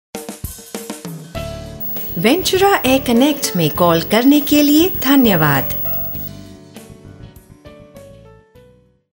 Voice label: female voice